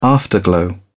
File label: "afterglow", uk